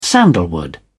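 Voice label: "sandalwood", British English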